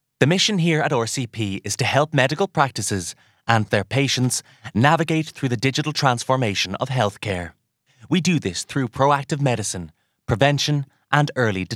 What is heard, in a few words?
Narration Irish; AdvantageIVR